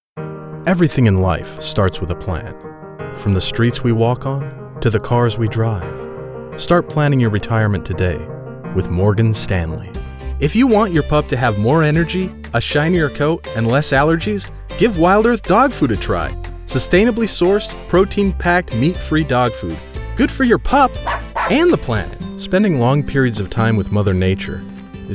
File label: commercial, voice over